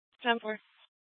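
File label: Scottsdale Police Department South Dispatch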